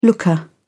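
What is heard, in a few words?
"looker", British English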